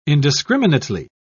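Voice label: "indiscriminately", British English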